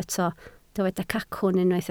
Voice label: "cacwn", Welsh

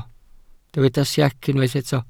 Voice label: "siec", Welsh

